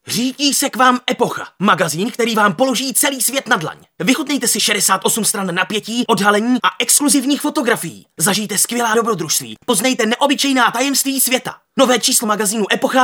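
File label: Male Czech commercial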